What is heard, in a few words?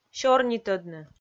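"сёрнитӧдны", Komi, female; kpv audio dict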